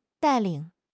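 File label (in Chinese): "带领", Chinese; HSK6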